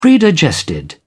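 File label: "predigested", English, British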